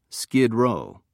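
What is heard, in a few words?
"skid row", British English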